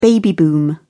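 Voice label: "baby boom", British English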